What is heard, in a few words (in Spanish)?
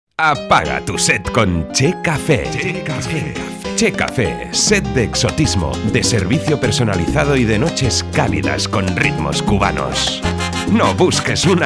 SPOT Radio Spanish VO